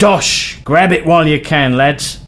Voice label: Drop Cash, voice commands, male; svencoop